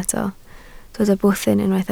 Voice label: "bwthyn", Welsh

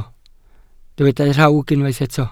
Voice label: "rhawg", Welsh

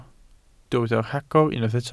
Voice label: "rhagor", Welsh

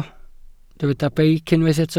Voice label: "beic", Welsh

